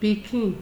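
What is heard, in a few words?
"pikin", Nigerian Pidgin, female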